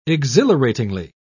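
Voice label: "exhilaratingly", British English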